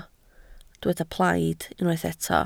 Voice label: "plaid", Welsh